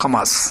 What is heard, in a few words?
"kamasu", minamiyamagata